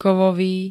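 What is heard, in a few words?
"kovový", Slovak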